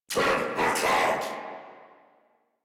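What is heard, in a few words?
Reload, English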